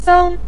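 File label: "seng", Mandarin Chinese, neutral tone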